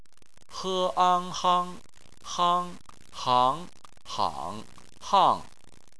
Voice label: "hang", Chinese

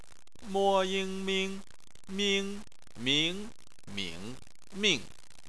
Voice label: "ming", Chinese

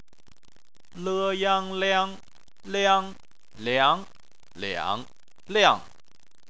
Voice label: "liang", Chinese

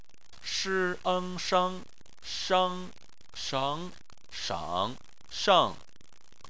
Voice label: "sheng", Chinese

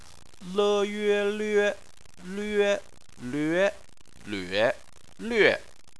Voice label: "lve", Chinese

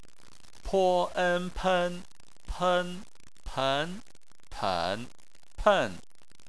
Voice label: "pen", Chinese

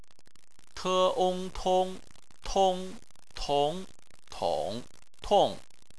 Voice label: "tong", Chinese

